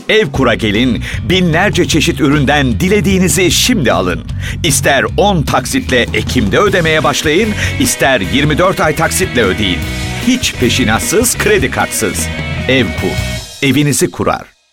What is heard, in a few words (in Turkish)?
enerjik kampanya; seslendirme